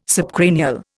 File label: "subcranial", Indian